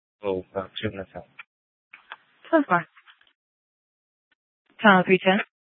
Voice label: Sheriff, scanner; Maricopa County (P25) - Thompson Peak; Shrf Lakes